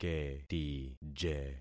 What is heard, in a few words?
"ketije", concat syllables; Affixing experiment